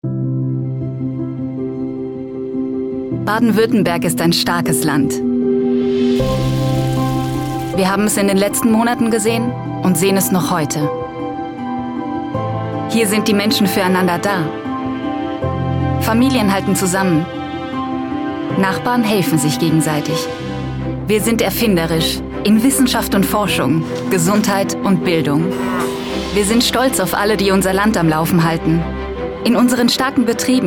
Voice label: Werbung; Gruene

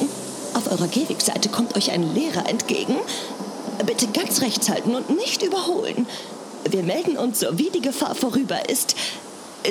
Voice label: Radio Verkehrsstudio; Popoklatsch

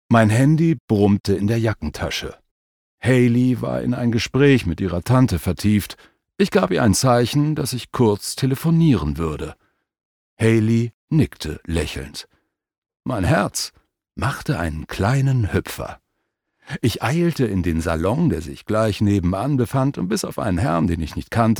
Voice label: Audiobook (Hörbuch), German; Total planlos verliebt

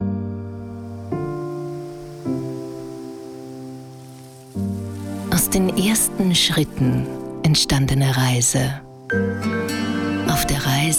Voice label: TV Spot; Kastner und Oehler

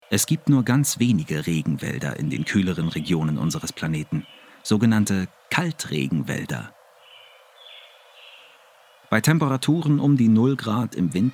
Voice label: Naturdokumentation

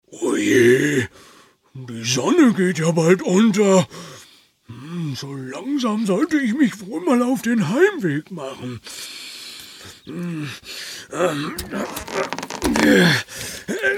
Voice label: Kinderhoerspiel; Pumbo

